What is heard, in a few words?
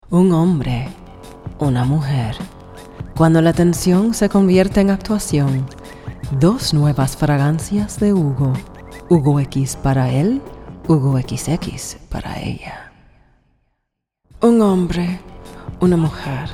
SPANISH Both Accents